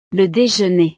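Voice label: "le dejeuner", French; snacks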